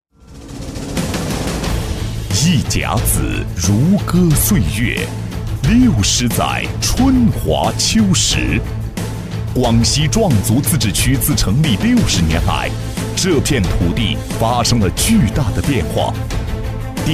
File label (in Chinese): 男 城市宣传片 大气厚重; 广西